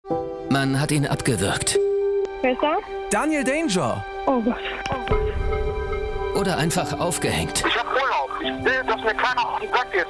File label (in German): Trailer; Telefonier Weltrekord; Längste Leitung der Welt